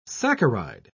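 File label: "saccharide", English, British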